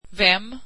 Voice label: who, swedish

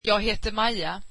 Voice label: swedish my name is Maya

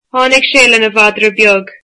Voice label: he came with his small dog, irish

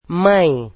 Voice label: "mother", Portuguese

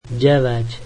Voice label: slovak nine

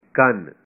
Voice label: "ear", punjabi

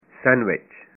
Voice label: sandwich, punjabi

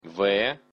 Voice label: belarusian alphabet v

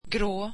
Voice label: swedish grey

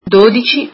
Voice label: twelve, italian

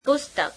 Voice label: gujarati book

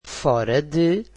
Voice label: "outside", Portuguese

